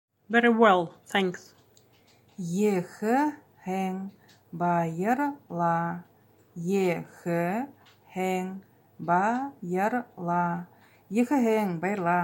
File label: Very well thanks, Buryat